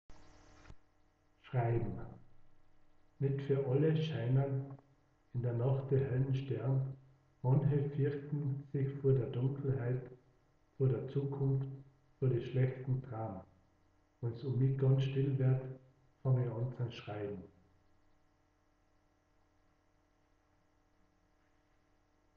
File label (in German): mundart